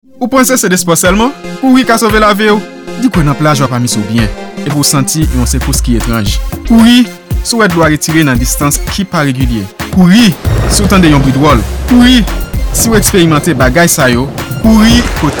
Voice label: TSUNAMI RADIO CREOLE